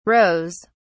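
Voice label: "rows", British English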